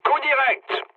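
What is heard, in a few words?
bomb success, mood low, French; War Thunder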